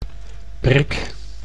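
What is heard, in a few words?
"brik", Dutch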